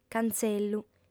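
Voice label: "cantzellu", female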